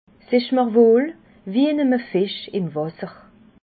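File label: "wasser", Alsatian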